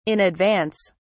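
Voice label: "in advance", British English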